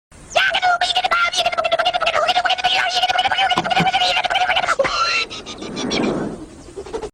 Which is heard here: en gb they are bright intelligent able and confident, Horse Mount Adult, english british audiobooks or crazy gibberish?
crazy gibberish